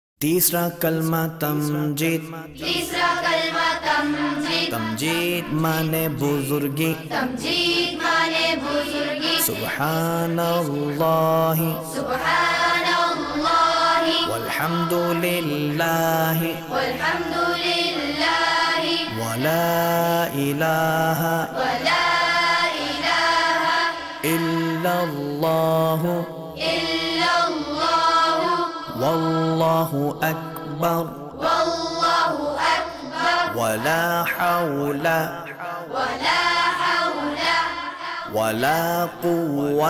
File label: Teesra Kalma Tamjeed Arabic Qari; ARY Qtv; 3rd kalma